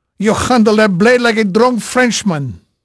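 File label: Portuguese male, voice; Pirates Of The Caribbean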